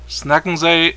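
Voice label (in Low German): "snack", Plattdüütsch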